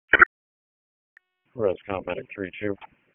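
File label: EMS Dispatch, p25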